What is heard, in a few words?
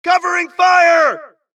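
suppress, dialogue, Allies; mohaa; Mission 2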